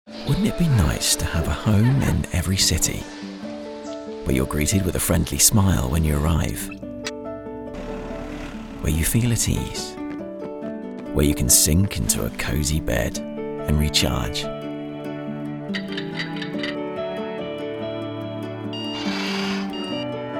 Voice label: brand film corporate video young UK male voice over artist; Motel One